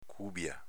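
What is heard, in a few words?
"cubia", dialeto veneto; cùbia s.f. coppia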